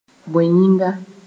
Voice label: "bõe yĩnga", Mooré

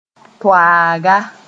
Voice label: "toaaga", moore

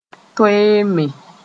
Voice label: "toeeme", moore

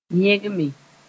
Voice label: "yẽgme", Mooré

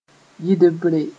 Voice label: "yɩdbre", Mooré

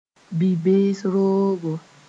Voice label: "bi-bees roogo", Mooré; prison

